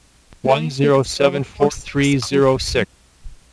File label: male; voice separation system developed by Mitch Weintraub